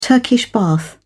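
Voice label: "turkish bath", British English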